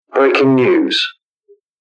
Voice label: "Breaking News" Radio Voice

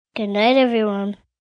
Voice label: Goodnight Every, Greeting, 1 boy, 6 yrs.; Talking Sound Effects

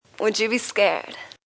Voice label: Lady Vocal; Talking; Voice

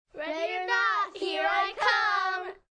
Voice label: Group Ready Or No, Group, 3-5 children

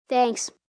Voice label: Thanks Child, child, boy; Etiquette; Etiquette Sound Effects

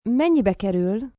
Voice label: How Much, Question, foreign, female: Hungarian; Talking Sound Effects; VoiceBox; Twistedtracks

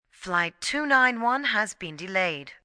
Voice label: Delayed, Airport, PA, announcements, female

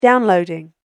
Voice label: "Downloading", British Female Voice; Recorded Voice Sound Effects; human voice sound effect; spoken word sfx

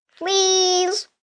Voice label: Whine Please, 1 boy, 7 yrs; Whining; VoiceBox

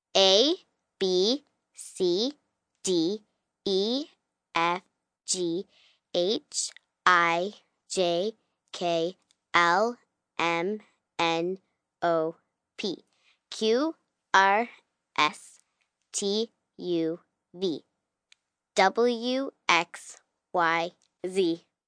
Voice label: Alphabet, Letters, 1 child, 9 yrs; Talking Sound Effects; Voice

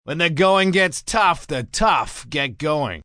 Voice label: Going Gets Tough, Sayings, male; Talking Sound Effects

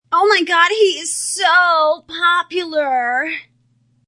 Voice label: Female OMG He So Popular, A female says; Talking

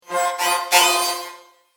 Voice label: Shut Up Android; Robot Sound Effects; science fiction sound effect

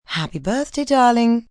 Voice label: Birthday Brit, female; Occasion, event; Holiday

